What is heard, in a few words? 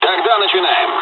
dialogs russian; War Thunder